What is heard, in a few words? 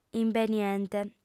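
"imbeniente", female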